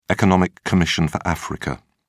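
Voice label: "economic commission for africa", British English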